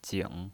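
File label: "jing", third tone, pinyin voice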